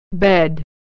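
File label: vowel e "bed", pronunciation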